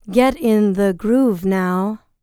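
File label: licks, female spoken; ILIO; Vocal Planet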